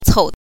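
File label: "cou" neutral tone, Mandarin Chinese pinyin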